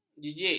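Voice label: "jijik", Malay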